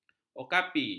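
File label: "okapi", Malay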